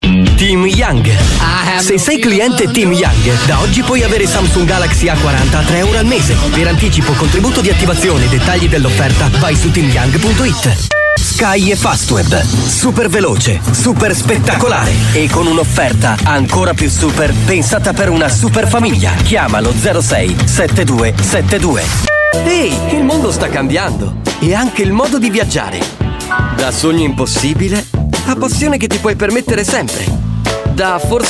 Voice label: italian voice over male commercial

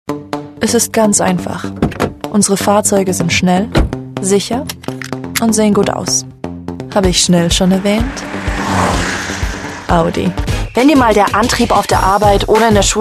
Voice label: germany voice over female commercial

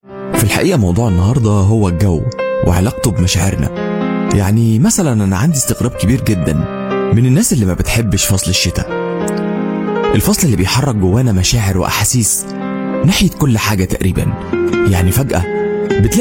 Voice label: arabic voice over male narrator

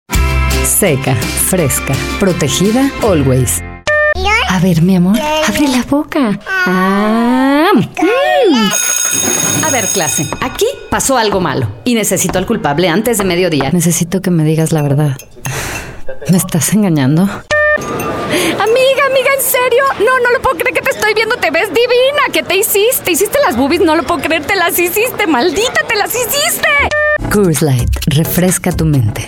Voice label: spanish voice over female commercial

